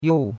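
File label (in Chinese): "you4" Chinese; Cours de Chinois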